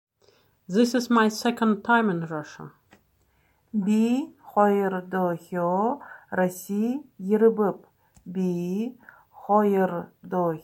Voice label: This is my second time in Russia, Buryat